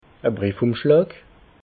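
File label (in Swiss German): "briefumschlaj", Alsatian, Haut-Rhin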